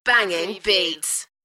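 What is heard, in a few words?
UK female, voice drop; Banging beats; beanstalk audio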